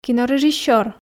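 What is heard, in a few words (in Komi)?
"кинорежиссёр", Komi, female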